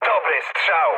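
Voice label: player killed enemy mood med, speech Polish; War Thunder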